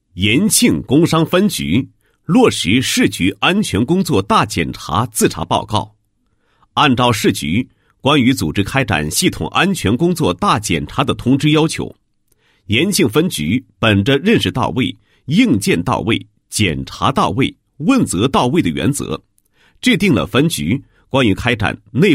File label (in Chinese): 男 工作报告 安全工作大检查自查报告